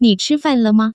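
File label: ni chi fan le ma, Taiwan Mandarin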